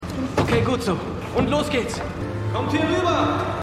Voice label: Mann Gelbes T-Shirt; MEMORIAL HOSPITAL - DIE TAGE NACH HURRIKAN KATRINA (DF 2022); Five Days at Memorial

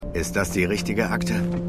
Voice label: Mann; Fargo

